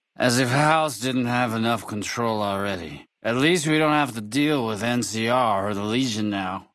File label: male adult hello